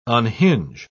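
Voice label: "unhinge", British English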